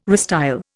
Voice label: "restyle", Indian